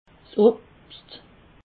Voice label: "obscht", alsace

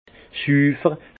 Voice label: "sufer", Alsace; vin; propre

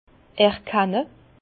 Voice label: "erkenne", Bas Rhin; vin; percevoir

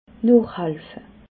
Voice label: "noochhelfe", alsace

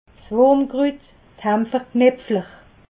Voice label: "wurmkrut", alsace

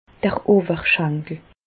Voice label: "owerschankel", Bas Rhin; medecine; cuisse

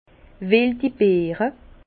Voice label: "weldi beere", Bas Rhin; vin; baies sauvages

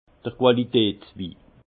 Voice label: "qualitatswi", alsace

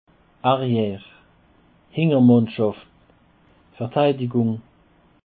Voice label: "arriere", Alsace

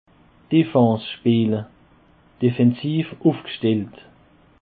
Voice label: "defens", Alsace

